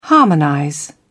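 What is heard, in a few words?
"harmonize", British English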